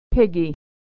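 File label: "piggy", American English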